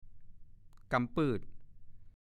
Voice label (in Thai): "กำปื้ด", ภาษาโคราช